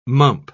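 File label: "mump", British English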